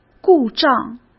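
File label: "故障" Mandarin Chinese; chinese flashcards; hsk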